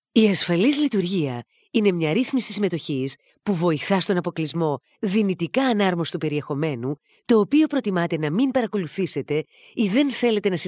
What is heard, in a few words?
greek female; google